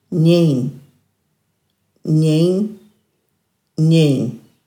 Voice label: "njiinj", Dhurga

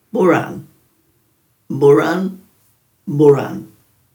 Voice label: "buran", Dhurga

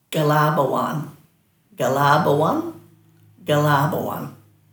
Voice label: "galaabawan", Dhurga; weak

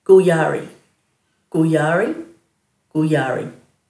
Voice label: "guyari", Dhurga

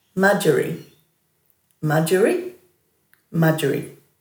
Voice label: "madjari", Dhurga

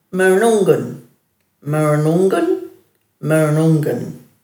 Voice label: "murnunggan", Dhurga

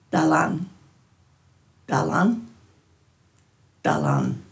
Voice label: "dhalanj", Dhurga

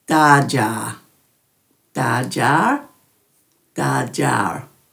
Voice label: "dhaadjar", Dhurga

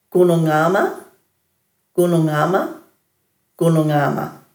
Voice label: "gunungama", Dhurga

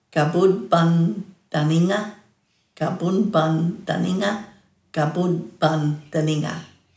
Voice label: "gabudbandhaninga", Dhurga

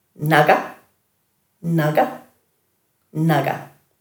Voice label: "naga", Dhurga

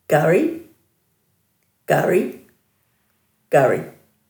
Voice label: "gari", Dhurga

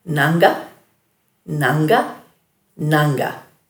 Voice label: "nangga-", Dhurga

